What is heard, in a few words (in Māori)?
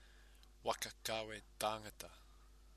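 "waka kawe tangata", Māori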